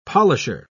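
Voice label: "polisher", British English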